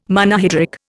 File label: "Monohydric", Indian